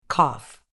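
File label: "cough", British English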